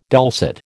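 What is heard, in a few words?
"dulcet", American English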